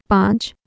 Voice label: "panch", Urdu